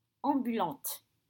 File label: "ambulantes", French